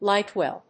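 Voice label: "light well", English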